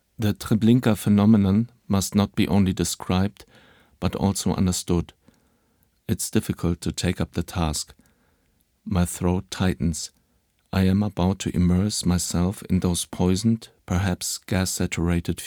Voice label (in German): englisch; Vitrine; underground showcase